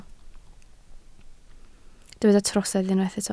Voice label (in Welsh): "trosedd" Welsh